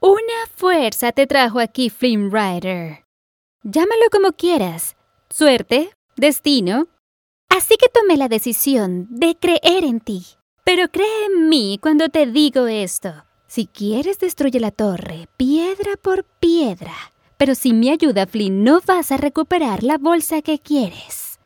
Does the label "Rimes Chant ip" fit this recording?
No